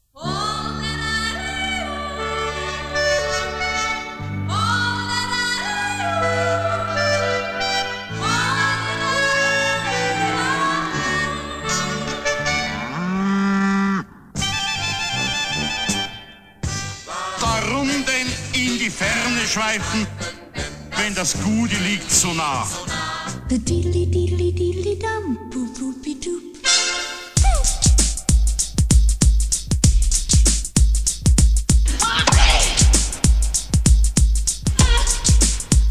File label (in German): Ansage